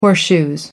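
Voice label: "horseshoes", British English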